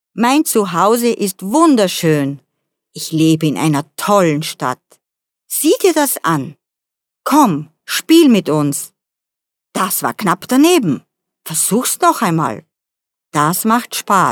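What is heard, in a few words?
German female children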